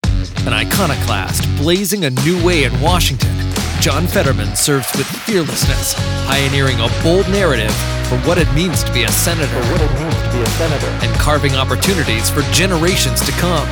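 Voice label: Democrat Image Ad, male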